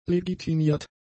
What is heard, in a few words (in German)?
"legitimiert", German; adjectives